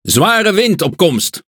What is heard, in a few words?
Speech Alarms Snowstorm, NL; World Of Warships